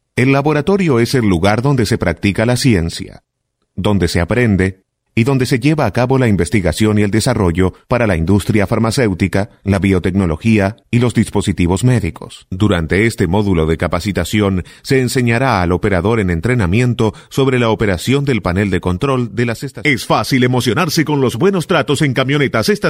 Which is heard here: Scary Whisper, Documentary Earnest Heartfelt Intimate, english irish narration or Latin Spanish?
Latin Spanish